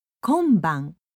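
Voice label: "konban", Japanese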